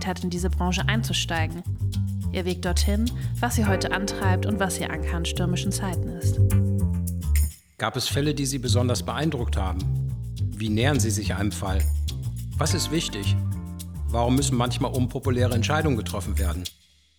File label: podcast trailer